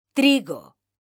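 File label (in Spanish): "trigo", Spanish; mekanta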